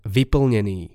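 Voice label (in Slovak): "vyplneny", Slovak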